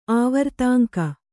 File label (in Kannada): "ಆವರ್ತಾಂಕ", Kannada